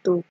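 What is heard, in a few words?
"to", Nigerian Pidgin, female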